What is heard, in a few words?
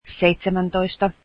seventeen, finnish